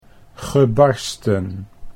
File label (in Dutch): "gebarsten", Dutch